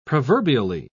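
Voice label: "proverbially", British English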